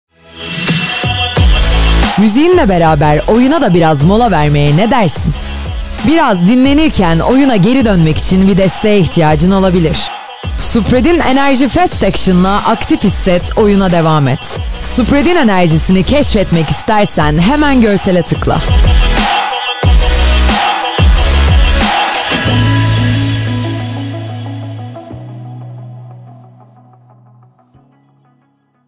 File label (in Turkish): reklam; supradyn